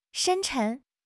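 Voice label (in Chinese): "深沉", Chinese; HSK6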